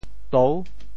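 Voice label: "tou6", Teochew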